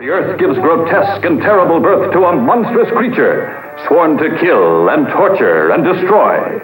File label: Sworn To Kill, Spoken, Industrial